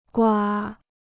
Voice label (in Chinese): "瓜", Chinese